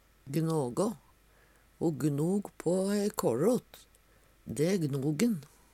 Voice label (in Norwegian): gnaga, Numedalsmål